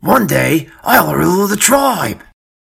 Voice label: orc boy

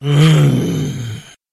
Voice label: monster cursed criminal guard

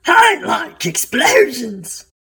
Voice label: npc orc mage dealer

